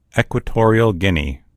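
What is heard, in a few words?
"equatorial guinea", English; gcompris; geography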